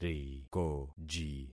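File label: "rikoji", concatenated syllables; Affixing experiment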